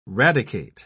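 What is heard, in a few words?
"radicate", British English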